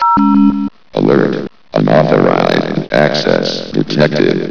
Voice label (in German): ansage; de stockyard2